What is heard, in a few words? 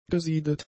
"gesiedet", German; adjectives